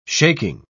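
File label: "shaking", British English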